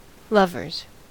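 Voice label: "lovers", American English; pronunciations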